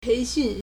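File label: "pei2xun4", Mandarin Chinese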